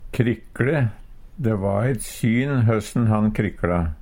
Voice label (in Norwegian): krikle, Numedalsmål; Verb; Uttrykk